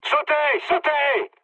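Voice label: French bailout mood high; War Thunder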